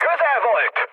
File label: bomb failure, speech hun; War Thunder